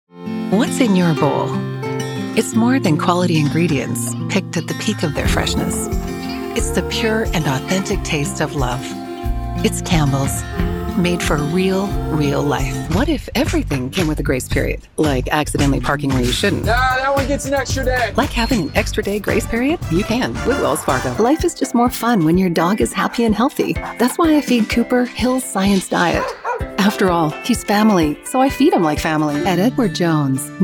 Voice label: english canadian commercial

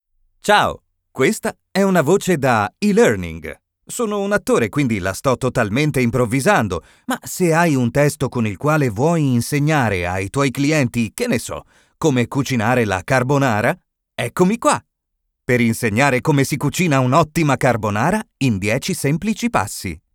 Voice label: italian e-learning

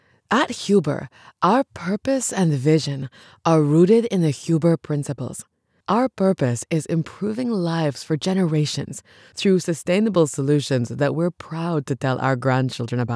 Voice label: english international corporate videos

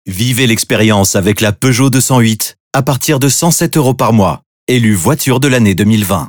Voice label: french automotive

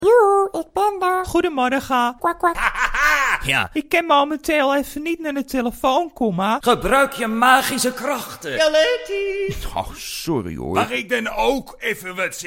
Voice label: dutch animation

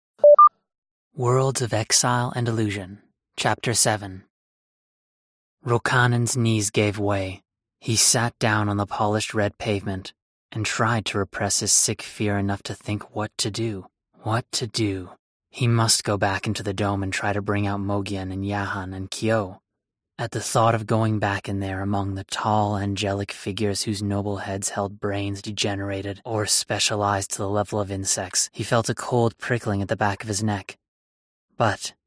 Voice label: english canadian audiobooks

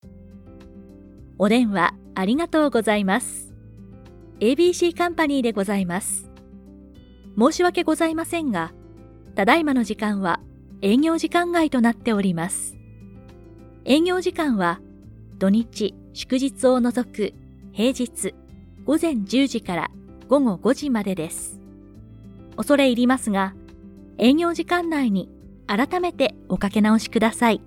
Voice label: japanese ivr

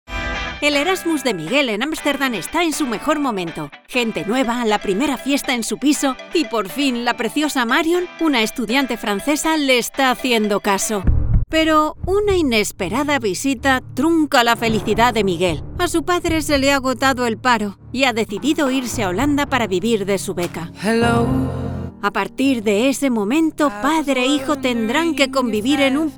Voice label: spanish

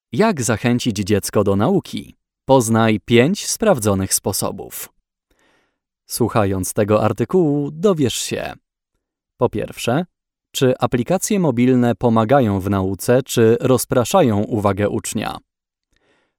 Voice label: polish podcasts

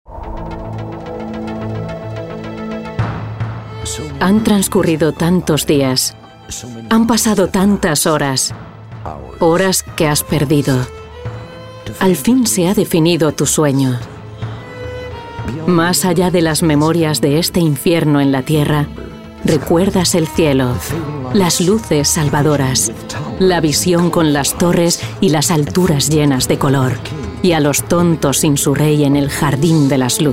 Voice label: spanish documentaries

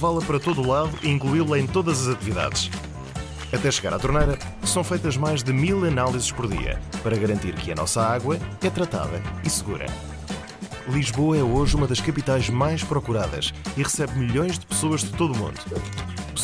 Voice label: portuguese narration

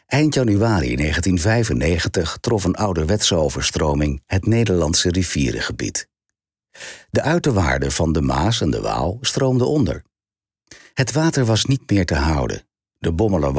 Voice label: dutch documentaries

